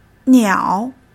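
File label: "niao", Chinese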